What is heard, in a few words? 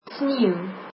snowy, afrikaans